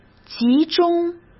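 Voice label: "集中", Mandarin Chinese; chinese-flashcards; hsk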